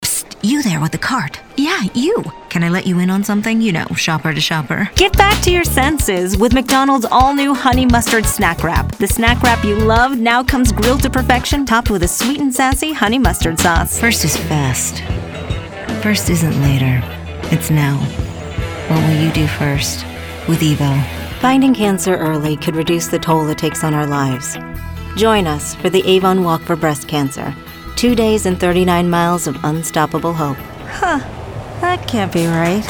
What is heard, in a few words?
commercial